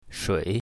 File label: "shui3", Mandarin; drinks